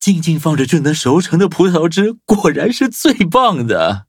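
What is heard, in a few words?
upgrade, Traditional Chinese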